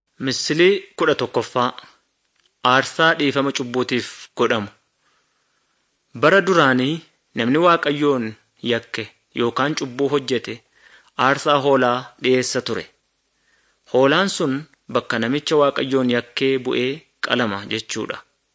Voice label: good news oromo wellega

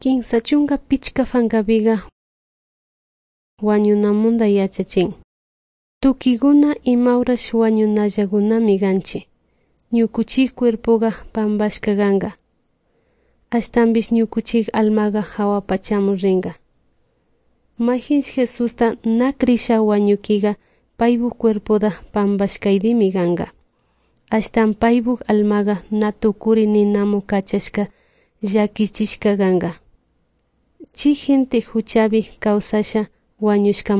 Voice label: good news quichua de salasaca

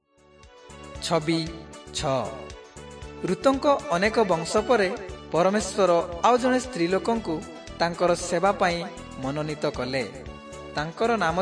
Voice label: southern oriya; servants of god

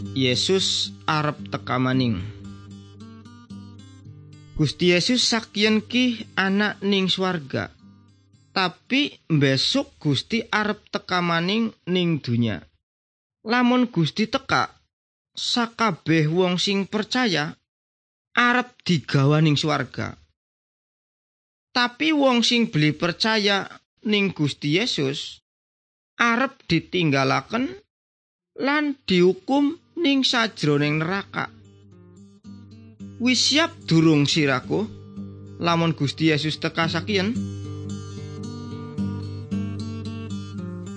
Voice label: good news jawa indramayu, javanese bible

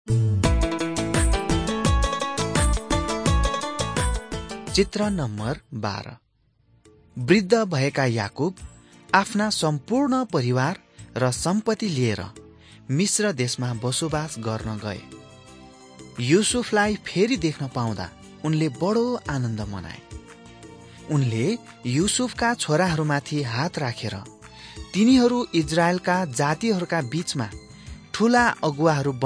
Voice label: mighty men of god nepali

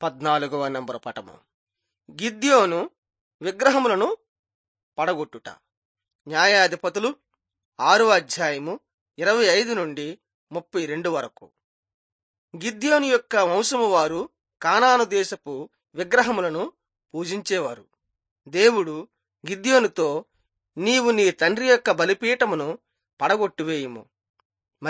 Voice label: telugu bangladesh

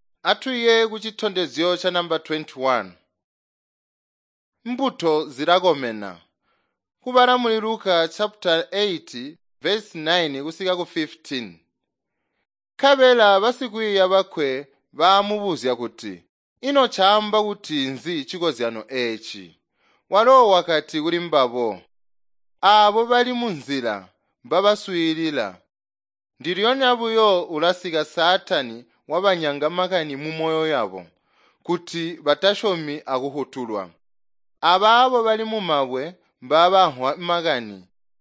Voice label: tonga plateau, drama; chitonga bsz nt drama

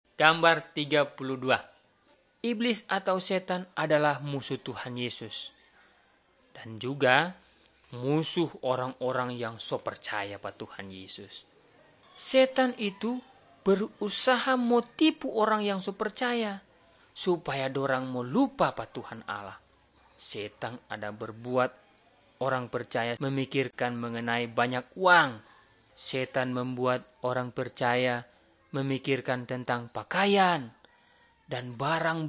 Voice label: malay manado; good news

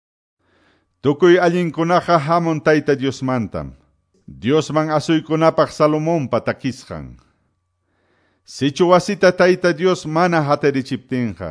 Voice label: salmos, quechua ayacucho

